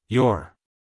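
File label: "your", American English, male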